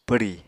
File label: "pwri", apatani; Material Culture